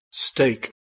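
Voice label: "stake", English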